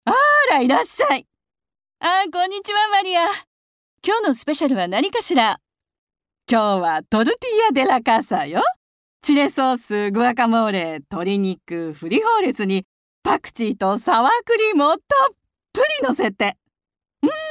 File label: Characters Japanese